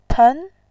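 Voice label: "pen", Mandarin, tone 2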